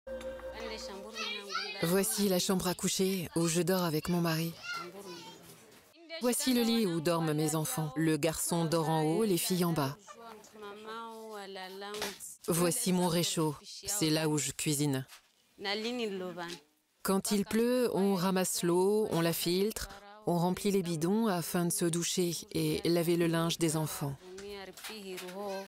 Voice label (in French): voix off feminine france; MAYOTTE